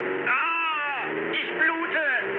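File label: German damaged pilot mood high, speech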